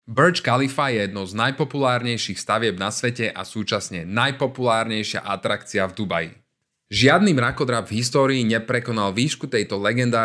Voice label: Slovensky Dabing; BurijKhalifa